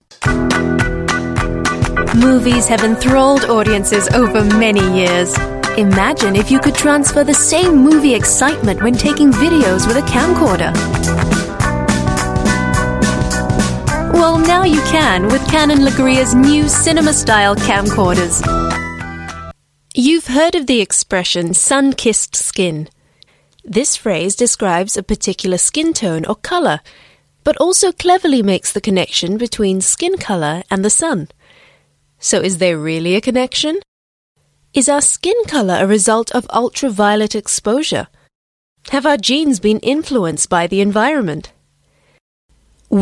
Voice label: Asian English Corporate VO, English Singaporean Female